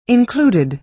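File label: "included", American English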